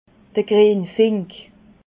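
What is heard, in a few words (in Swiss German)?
"grienfink", Alsatian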